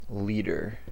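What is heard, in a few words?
"leader", American English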